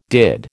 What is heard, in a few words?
"did", American